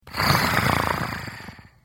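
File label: Idle, Khajiit female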